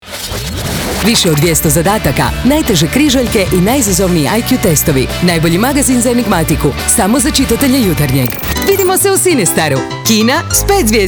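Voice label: croatian female commercial, voice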